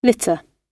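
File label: "litter" spoken, British English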